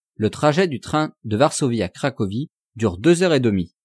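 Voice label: "le trajet du train de varsovie a cracovie dure", French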